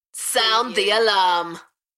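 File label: UK female, DJ vocals; beanstalk-audio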